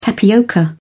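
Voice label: "tapioca", British English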